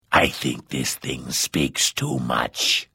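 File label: Hello, Vo; Morrowind GOTY GOG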